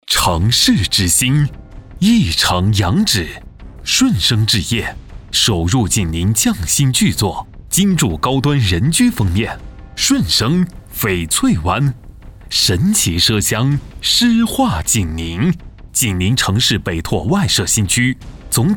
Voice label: 男 大气宣传 地产类

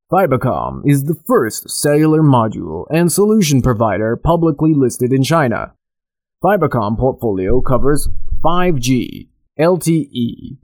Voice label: 美式男, 旁白; 外籍配音

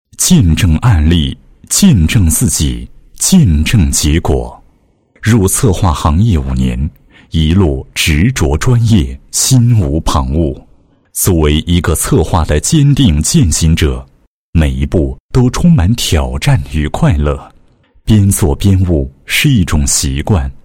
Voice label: 男 解说

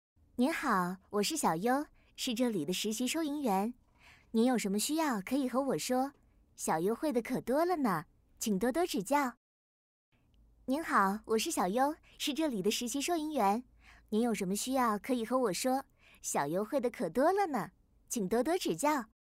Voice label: 女 AI机器人

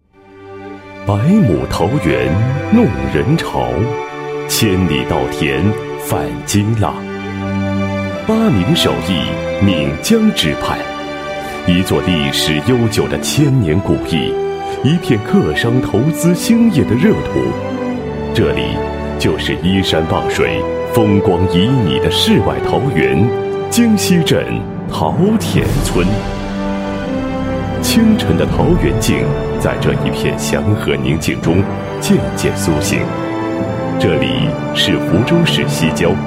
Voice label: 男 城市地区宣传 宣传片; 示范村建设

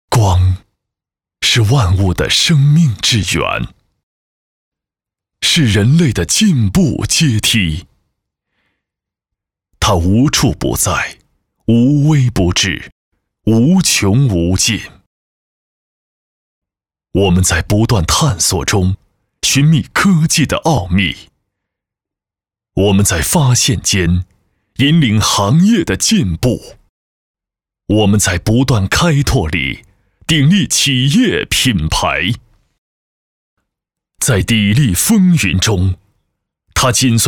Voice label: 男 专题 大气干音; 光学科技企业

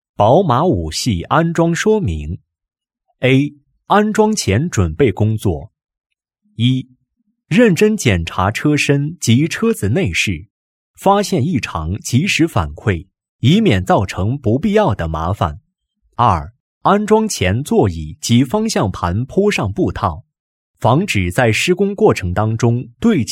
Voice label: 男, 解说词, 安装说明; 宝马5系安装说明

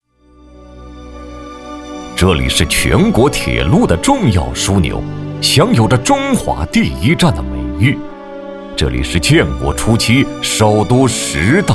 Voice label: 男100号-专题-北京火车站 平稳 大气, 国语男声配音

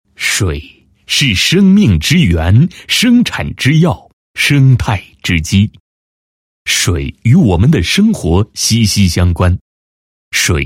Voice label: 男 水利局 宣传介绍; 祁门县水利局

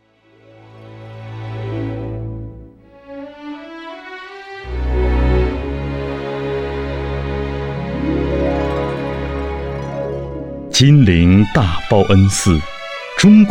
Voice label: 男 文化专题; 金陵; 报恩寺

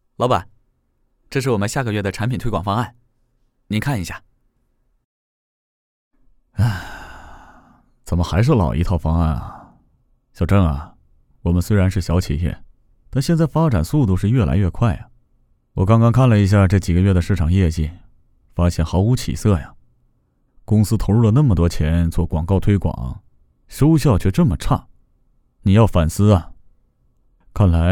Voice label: 男 角色 企划经理与老板 精分

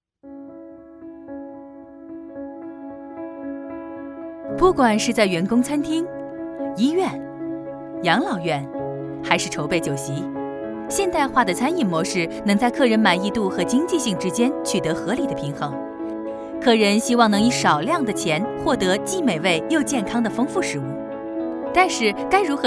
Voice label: 女 宣传片 轻松活力 烹饪餐饮

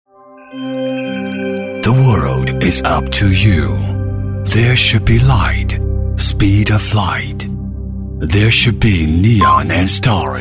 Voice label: 英男 专题 旁白 磁性优雅; 西曼帝克

